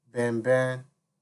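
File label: "benben", Nigerian Pidgin, male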